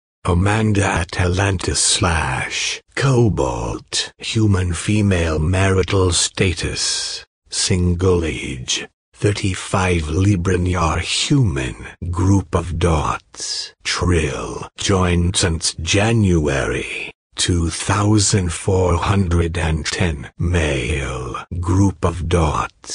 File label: Recorded Eaves dropping